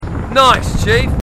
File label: marines, marine timid; h2dialogue